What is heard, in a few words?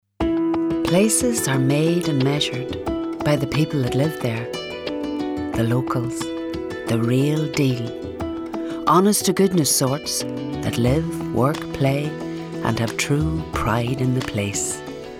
voice demo